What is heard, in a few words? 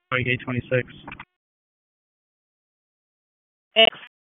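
Dispatch 1, P25; WSO DISP 1; wake p25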